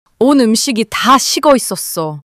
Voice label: anger